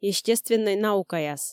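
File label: "естественнӧй наукаяс", Komi, female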